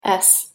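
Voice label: "s", English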